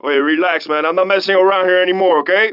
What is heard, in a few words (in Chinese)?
拉丁裔海滩中年男性; 拉丁裔海滩中年男性（罪恶都市）